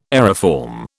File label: "aeriform", British